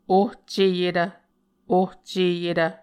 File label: "ohceyetv", Muscogee